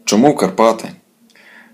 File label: dialogue, Ukrainian